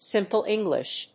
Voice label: "Simple English", English